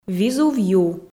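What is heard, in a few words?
визув ю, Komi, female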